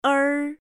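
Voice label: "er", Chinese pinyin final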